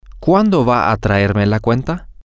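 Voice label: whenAreYouGoingToBringMeTheBill, Spanish, female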